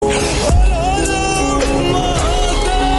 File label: Har Har Mahadev Notification Devotional Ringtone